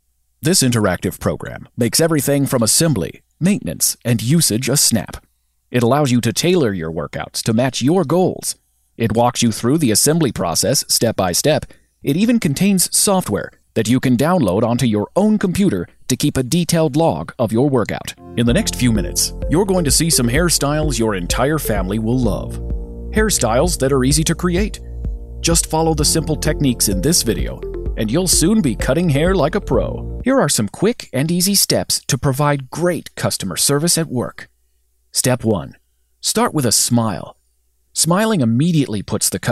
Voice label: elearning